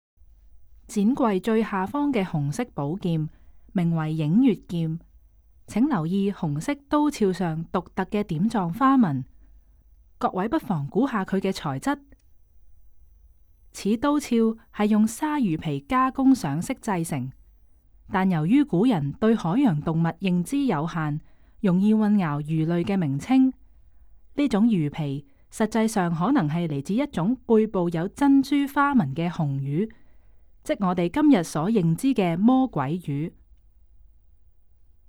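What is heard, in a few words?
Cantonese, audio guide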